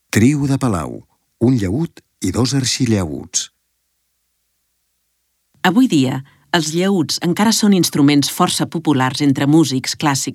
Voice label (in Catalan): audioguia catala; delamusica